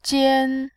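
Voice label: "jian", Mandarin, first tone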